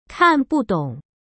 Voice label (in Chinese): "看不懂", 中国語; カンブートン; kàndǒng; 見ても意味が分かりません。